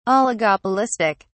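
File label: "Oligopolistic", British English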